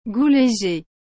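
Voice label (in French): "goût léger", French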